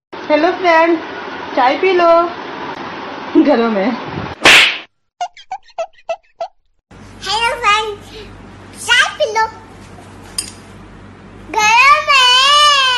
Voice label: Funny Dialogue